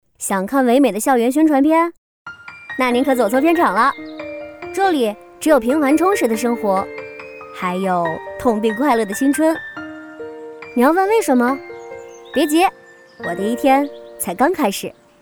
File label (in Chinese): 高三女生俏皮; 深圳艺术学校